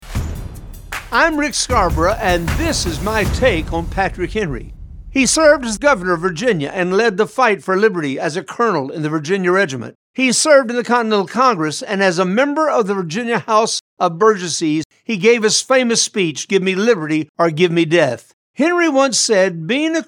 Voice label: Radio Spot; Patrick Henry